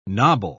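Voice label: "knobble", English, British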